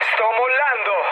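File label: Italian bailout mood low, speech; War Thunder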